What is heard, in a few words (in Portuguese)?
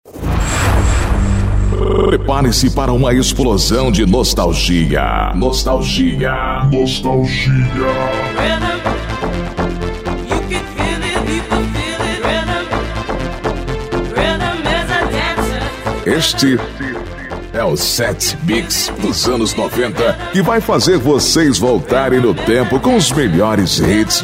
LOCUTOR